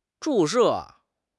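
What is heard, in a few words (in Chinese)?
"注射", Chinese; HSK6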